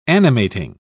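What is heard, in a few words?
"animating", British English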